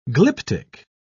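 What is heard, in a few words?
"glyptic", English, British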